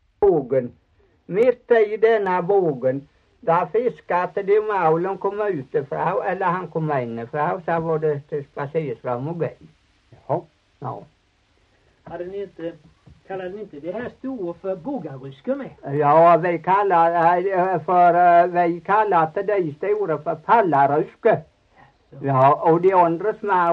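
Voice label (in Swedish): Torhamn Blekinge, Svenska sagor dialekter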